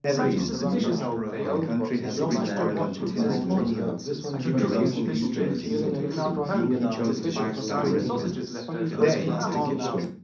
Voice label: male mix; SiSEC10; underdetermined

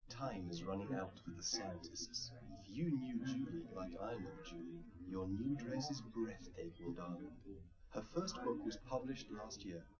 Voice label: male liverec; SiSEC11; underdetermined

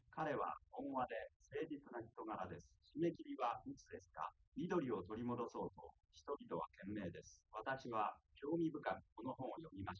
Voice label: male3 liverec 250ms 1m sim; SiSEC08; SiSEC underdetermined

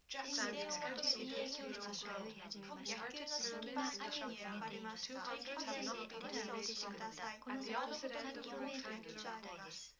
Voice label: female4 liverec 250ms 5cm src

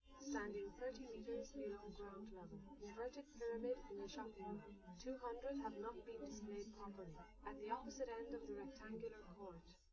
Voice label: test female live rec; SiSEC11; underdetermined

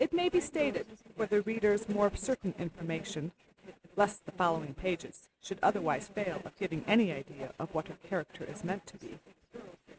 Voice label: female inst src; SiSEC08; SiSEC underdetermined

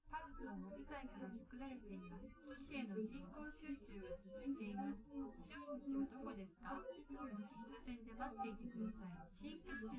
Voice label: female, live rec, 250ms, 1m

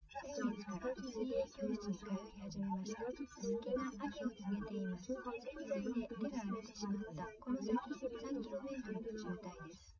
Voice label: female liverec; SiSEC08; SiSEC underdetermined

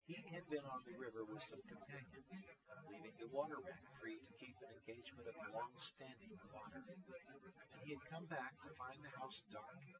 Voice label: male; SiSEC08; SiSEC underdetermined

